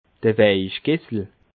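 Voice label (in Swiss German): "weichkessel", Alsatian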